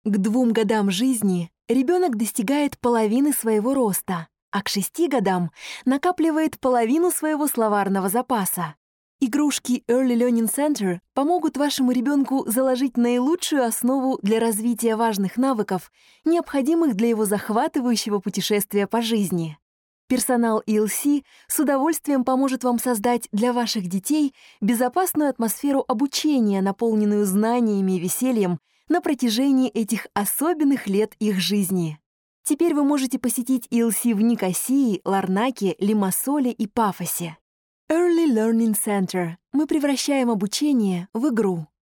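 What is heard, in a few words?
russian female narration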